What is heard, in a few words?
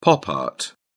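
"pop art", British English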